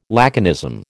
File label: "laconism", American